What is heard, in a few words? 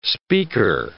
"speaker", English